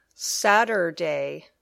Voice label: "Saturday", English

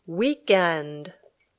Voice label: "weekend", English

